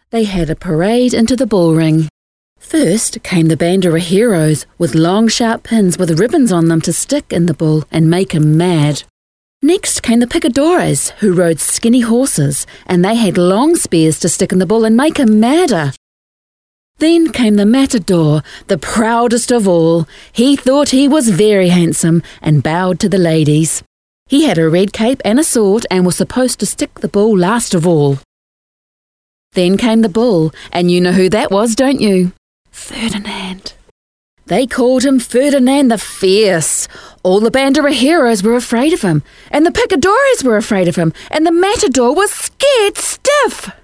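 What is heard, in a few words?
English New Zealand, Narration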